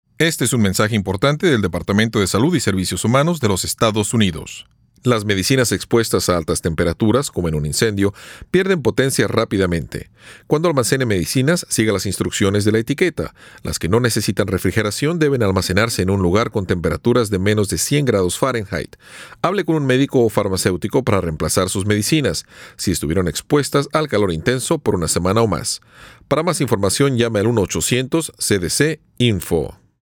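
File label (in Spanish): Spanish PSA; CDC; Drugs Exposed To High Temps; disasters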